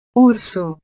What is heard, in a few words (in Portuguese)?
"urso", portugues